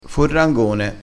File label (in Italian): "forrangone", crespinese